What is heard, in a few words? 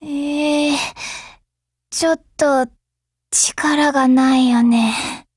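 break, Japanese; HK21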